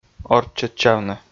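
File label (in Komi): "орччӧдчавны", Komi, male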